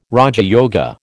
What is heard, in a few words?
"raja yoga", American